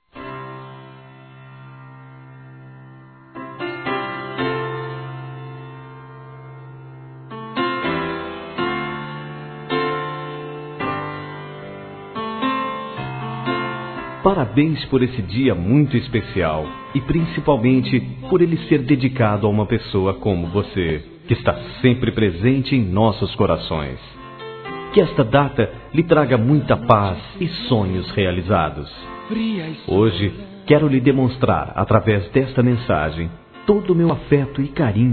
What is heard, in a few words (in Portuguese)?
Aniversário Sobrinho masculino